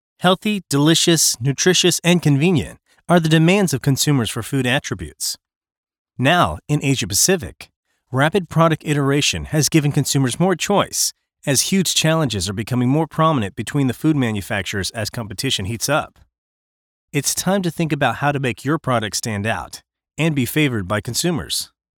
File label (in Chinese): 测试中心介绍轻快有力